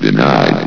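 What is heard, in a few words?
"denied", announcer voice; Source Engine; bshift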